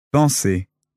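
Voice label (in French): "penser", French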